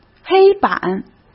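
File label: "黑板", Mandarin Chinese; chinese flashcards; hsk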